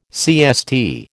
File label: "CST", American